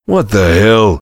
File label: heavy jeers; tf; duke